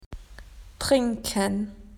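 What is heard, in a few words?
"to drink", Australian English; PictureDictionary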